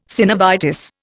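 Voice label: "synovitis", Indian English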